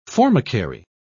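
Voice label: "formicary", English, British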